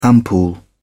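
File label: "ampoule", British English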